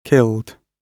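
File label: "killed", British English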